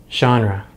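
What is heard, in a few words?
"genre", American English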